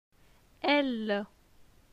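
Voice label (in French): "Elle", French; phonetique; les consonnes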